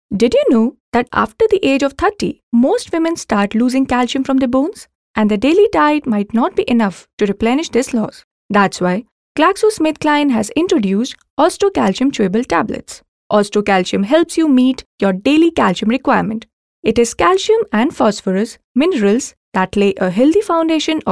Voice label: english, female voice